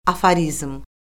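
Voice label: "aforizm", Azerbaijani; Orfoqrafiya Lüğəti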